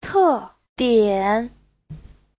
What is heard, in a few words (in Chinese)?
"te4dian3", Chinese; 特点; (danh từ) đặc điểm